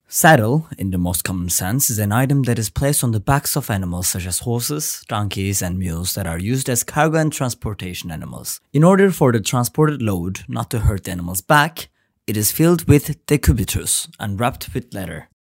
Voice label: english